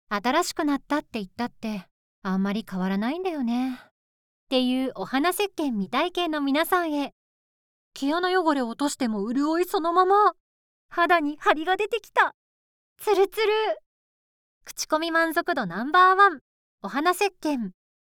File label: female